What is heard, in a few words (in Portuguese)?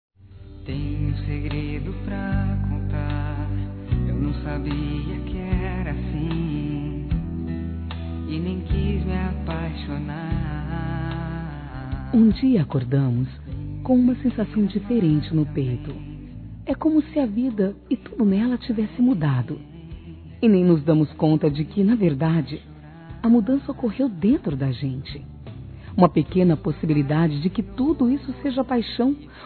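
ROMANTICA SUAVE, Feminino; Telemensagens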